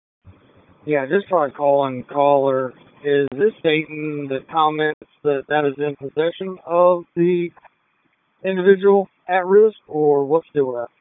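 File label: Police Southwest District Dispatch; Raleigh; p25; wake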